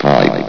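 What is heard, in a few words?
"pipe", male synthesized voice; vox; source engine; hl1; video games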